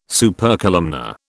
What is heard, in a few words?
"Supercolumnar", British